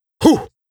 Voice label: Rap Do It Adlip H, vocal